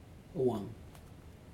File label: "six", Aneityum